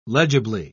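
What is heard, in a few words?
"legibly", British English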